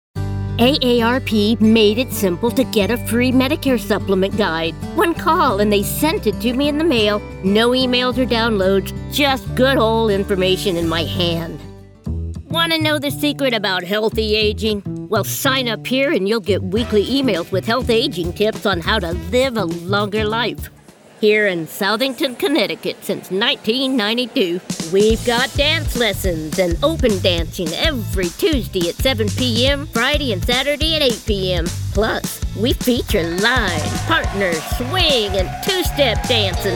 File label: Narration Sales